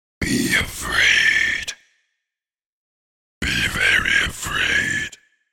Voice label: The words ‘Be Afraid-Be Very Afraid’ spoken in ominous deep voice.; Evil Voices; Horror